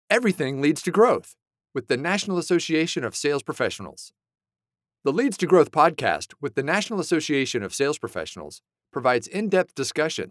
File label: english american podcasts